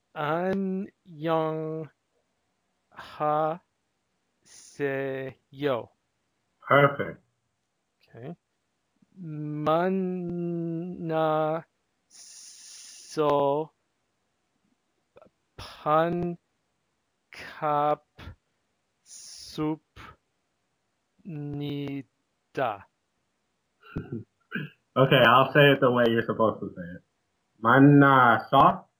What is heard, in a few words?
Reading Korean phrases